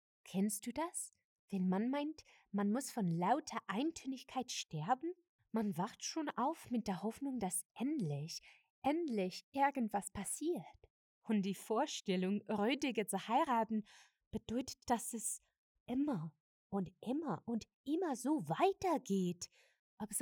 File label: German accent